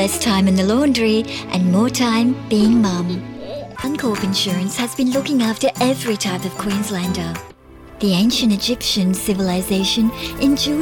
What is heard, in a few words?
Commercial voice